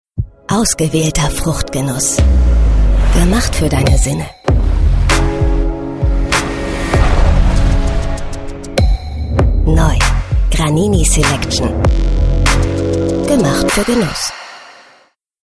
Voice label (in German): TV Spot; Granini